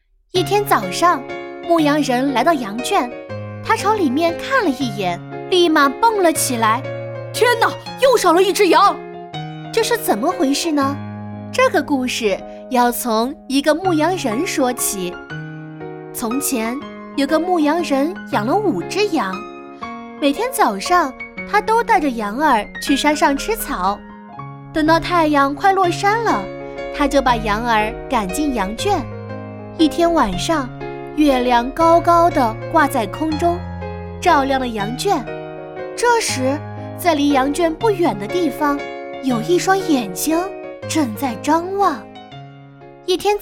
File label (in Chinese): 儿童读物